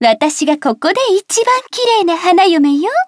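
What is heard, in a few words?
start, Japanese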